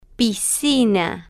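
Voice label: "piscina", Spanish, female, slow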